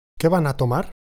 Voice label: conversation